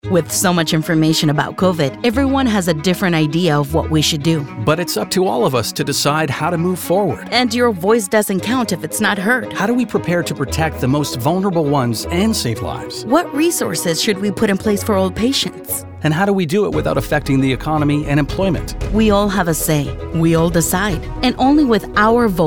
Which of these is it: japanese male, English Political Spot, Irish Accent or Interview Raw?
English Political Spot